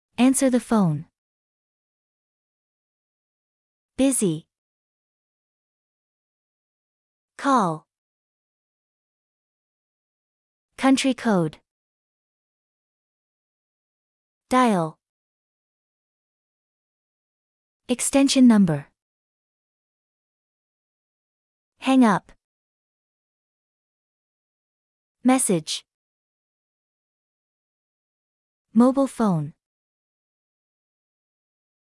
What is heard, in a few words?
telephone, usa